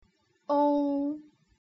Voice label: "ong", putonghua